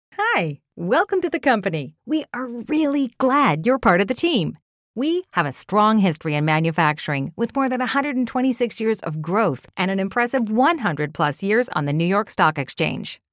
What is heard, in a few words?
Friendly Enthusiastic